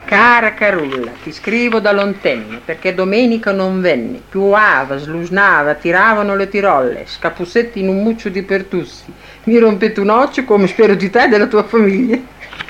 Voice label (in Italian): filastrocca; cara carulla